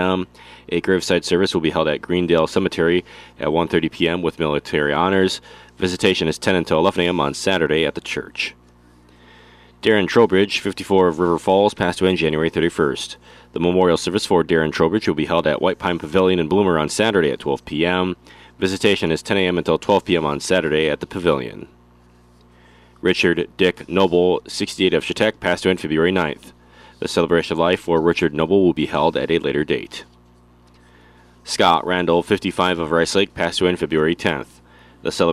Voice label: Funeral Announcements